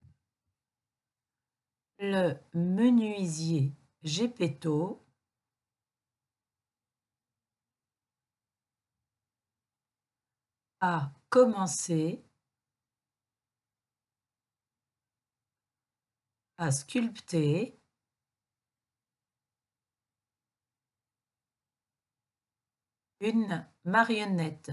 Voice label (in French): Dictee; Pinocchio